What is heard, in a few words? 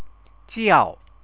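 "jiao" Chinese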